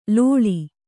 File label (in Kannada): "ಲೋಳಿ", Kannada